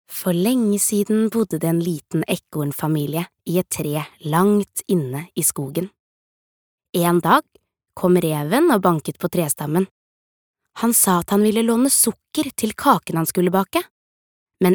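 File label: Norwegian childrens book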